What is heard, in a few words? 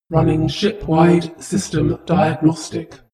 spaceship female status report